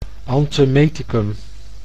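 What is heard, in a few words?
"antemeticum", Dutch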